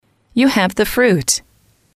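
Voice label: you have the fruit, English, female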